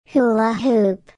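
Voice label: "hula hoop", English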